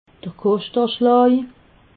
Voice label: "koschteanschlaj", alsace

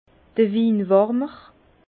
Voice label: "winwarmer", Bas Rhin; vin; chauffe vin

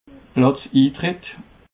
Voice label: "platzintriit", Alsace

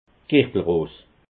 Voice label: "girtelros", alsace

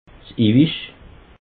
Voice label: "iwisch", alsace

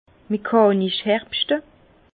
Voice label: "mechanisch", Bas Rhin; vin; recolter à la machine

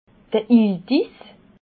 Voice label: "iltis", alsace

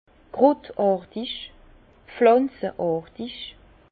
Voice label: "krut artig", Bas Rhin; vin; végétal

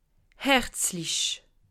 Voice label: "herzlich", alsace

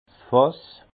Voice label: "fass", alsace

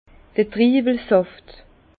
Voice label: "triiwelsaft", Bas Rhin; jus de raisin; vin

